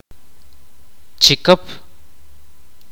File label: "animal", kaqchikel